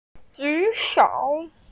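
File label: "ju3shou3", Mandarin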